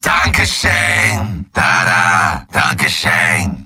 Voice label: positive vocalization